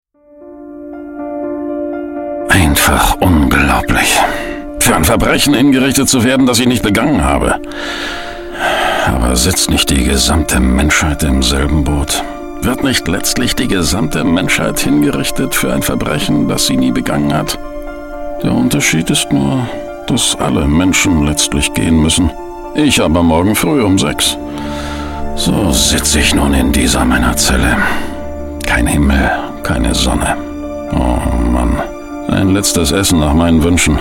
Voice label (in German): Hoerspiel